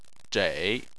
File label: "zhei3", Chinese